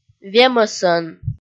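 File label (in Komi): "вемӧсӧн", Komi, female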